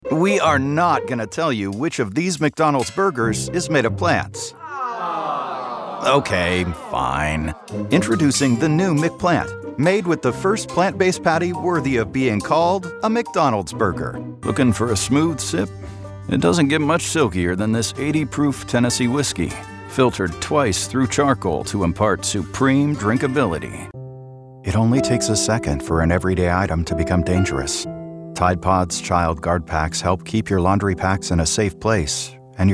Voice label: Commercial